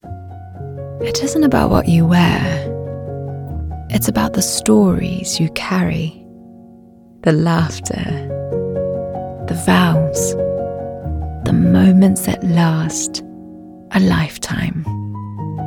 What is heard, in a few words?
UK Commercial